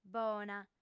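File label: "bona", female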